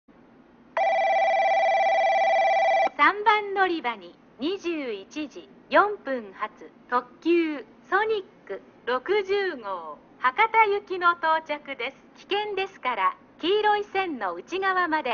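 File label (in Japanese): 3番のりば接近放送, 中津駅; ソニック60号 博多行き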